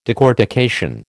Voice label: "decortication", American